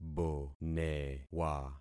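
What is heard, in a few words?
"bonewa", concatenated syllables; Affixing experiment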